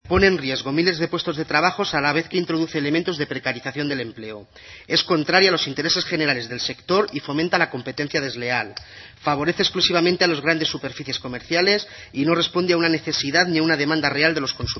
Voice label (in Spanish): noticias